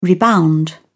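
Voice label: "rebound", British English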